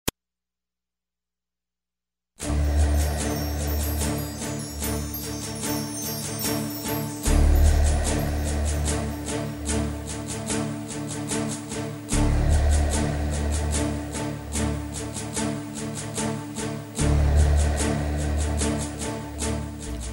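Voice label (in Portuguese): Evangélica Homenagem a Mae, female, telemensagens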